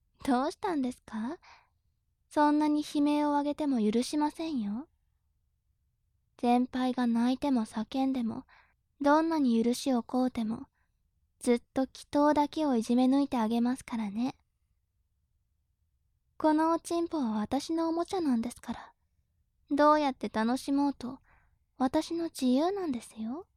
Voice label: 調教中音声, ASMR

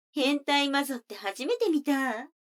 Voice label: 女の子 台詞, ASMR

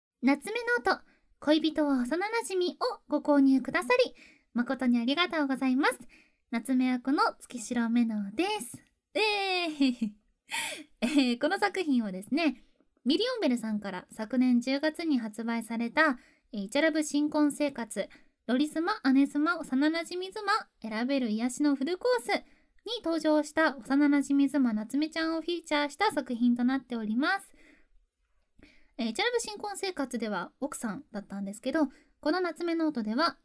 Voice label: フリートーク; なつめ役; なつめノートBonus

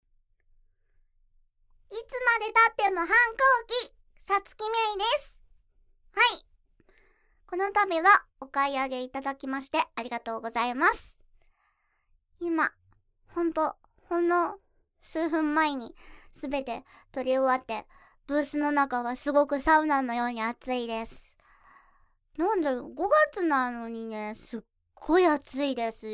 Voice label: フリートーク, ASMR

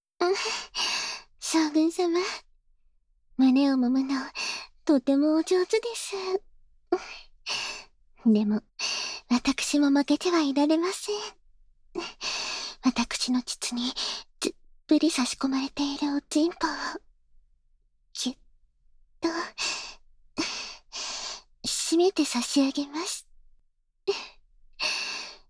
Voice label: ピロートーク; 間男距離感版

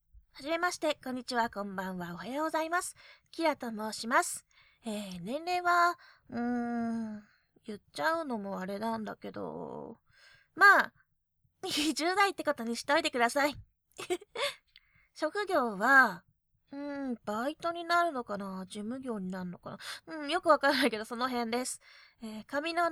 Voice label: フリートーク「自己紹介」; オナニー実演音声

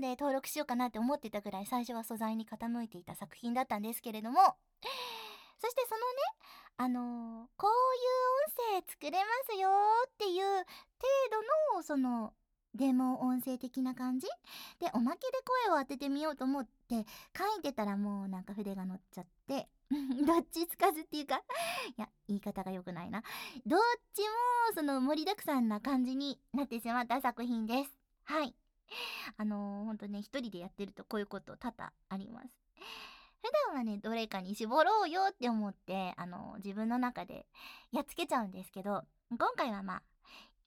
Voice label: フリートーク, ASMR

